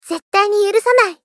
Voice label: Polite Angry Zettaini yurusanai

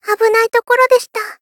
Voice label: Polite Victory Abunai tokoro deshita

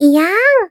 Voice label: Gag iyaan sexy No processing

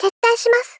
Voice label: Polite, Retreat, "Tettai shimasu"

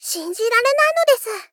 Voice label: Moe Dislike Shinji rarenai nodesu; Rei Yumesaki

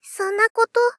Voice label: Moe, Refuse, "Sonna koto"

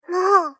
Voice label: Polite Angry Mou

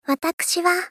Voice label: Polite Watakushi wa

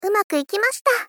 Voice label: Polite Success Umaku ikimashita

